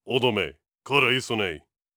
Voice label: angry

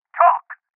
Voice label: Voices Interrogate Male

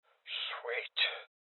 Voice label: Voices Loot Collect Male Old; rebel cops 2019 gamerip

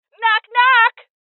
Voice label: Door Kick, Female; rebel cops 2019 gamerip

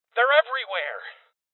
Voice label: Voices Heavy Losses Male; rebel cops 2019

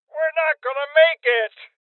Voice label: Voices Heavy Losses Male Old; rebel cops 2019 gamerip

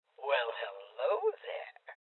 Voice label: Voices Loot Spotted Female Old

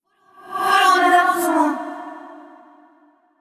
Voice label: Angry; Yamiko

